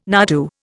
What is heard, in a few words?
"nardoo", Indian